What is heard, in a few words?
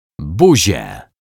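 "buzie", Polish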